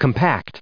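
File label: "compact", US English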